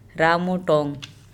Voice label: "ramouong", andamanese; tree a kind of